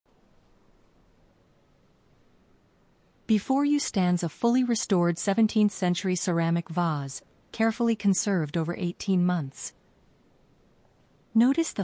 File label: museum audio guide